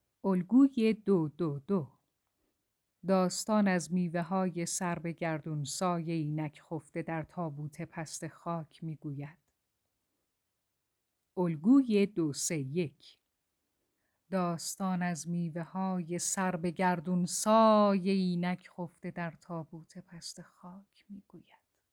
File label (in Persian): دکلمه شعر با الگوی (222) و الگوی (231); داستان; تفاوت انتقال احساس و لحن کاملا مشخص است.